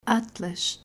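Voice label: "Atlas", Portuguese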